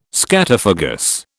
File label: "scatophagous", British